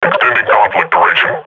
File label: combat idle, grunt; Half Life Model Pack